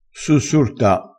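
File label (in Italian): "sussurta", dialetto crespinese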